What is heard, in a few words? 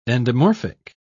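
"endomorphic", British English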